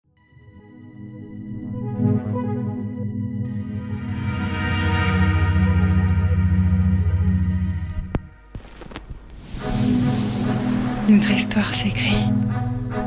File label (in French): SPOT WEB et TV; Bonobo Jeans; Changez de vie